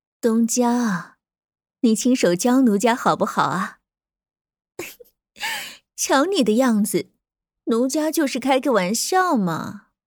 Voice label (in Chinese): 广播剧御姐角色音